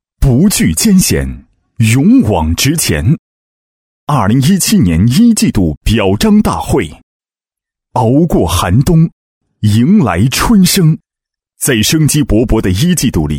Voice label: 男-颁奖-力度语气; 季度表彰大会

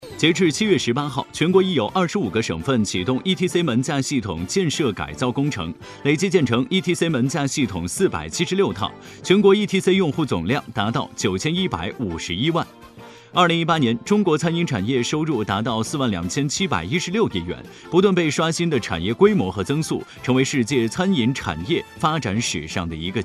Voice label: 新闻播报, 电台, 年轻

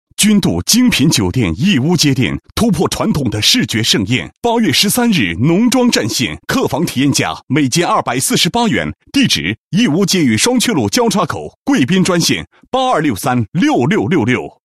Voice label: 男 宣传广告, 国语男声配音; 君度精品酒店

